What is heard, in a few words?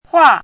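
"huà", Mandarin Chinese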